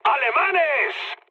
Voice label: enemy detected mood low; War Thunder